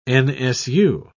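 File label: "nsu", British English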